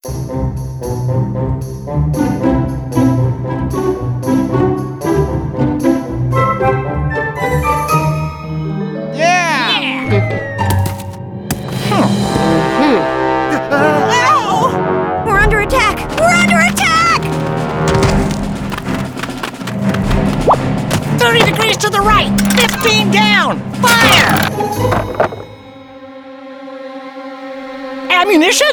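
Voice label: intro British; arthur and the revenge of maltazard; Wii; Intro Uk L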